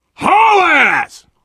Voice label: emphatic run, survivor voice

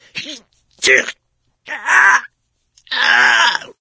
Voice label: choke, survivor voice mechanic; source engine; left 4 dead 2

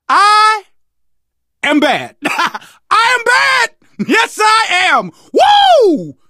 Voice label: hurrah, survivor voice

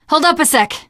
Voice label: wait here, teen girl voice; source engine; left 4 dead 2; survivor

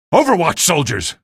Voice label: alert soldier, male; video games; npc; source engine; episodic; episode 1

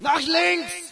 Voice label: German left; player; Source Engine; dod

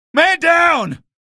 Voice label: buddy killed, male; video games; npc; source engine; episodic; episode 1

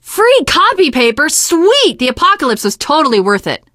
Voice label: copy paper, teengirl, voice; Left 4 Dead 2; Source Engine; video games

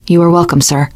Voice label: you are welcome, teen girl; voice; source engine; left 4 dead 2; survivor

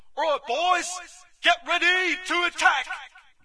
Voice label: brit prepare; player; Source Engine; dod

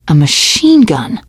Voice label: take submachine gun, teen girl, survivor voice; source engine; left 4 dead 2

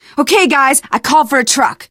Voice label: radio used truck, teen girl, survivor voice

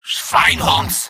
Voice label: jeers; medic; video games; source engine; tf; mvm

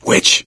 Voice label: warn witch, gambler, survivor voice; source engine; left 4 dead 2

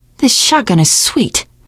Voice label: take auto shotgun, teen girl, survivor voice; source engine; left 4 dead 2

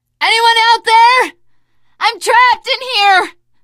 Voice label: call for rescue, teen girl voice; survivor voice

